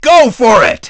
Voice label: dj rank up; DJ VOICE; Nintendo; July 2020 Gigaleak

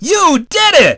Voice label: dj goal; DJ VOICE; Nintendo; July 2020 Gigaleak

